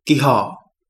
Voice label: snake, Kapingamarangi

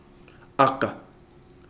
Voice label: root, Kapingamarangi

